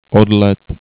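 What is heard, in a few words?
"odlet", Slovak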